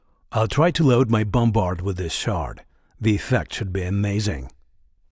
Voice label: Voice Monolog; we are the dwarves gamerip 2016

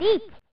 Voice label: "Neets!" (Female); pro mahjong kiwame; snes

